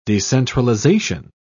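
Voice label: "decentralisation", English, British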